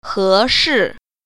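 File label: "he2shi4", Mandarin Chinese Tone Pair Drills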